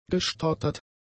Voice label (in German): "gestottert", german; adjectives